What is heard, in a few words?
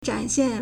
"zhan3xian4", Chinese